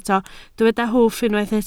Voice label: "hwff", Welsh